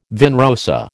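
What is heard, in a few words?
"vin rosé", American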